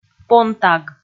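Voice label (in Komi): "понтаг", Komi, female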